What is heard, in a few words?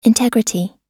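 "integrity", US English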